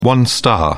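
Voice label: "one star", English, British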